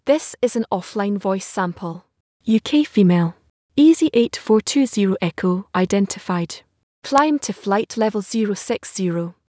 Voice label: UK